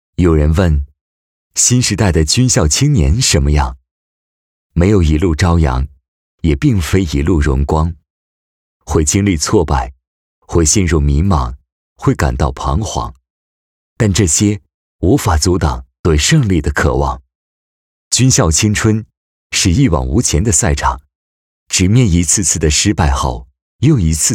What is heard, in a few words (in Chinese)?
男 年轻; 军校青春中国空军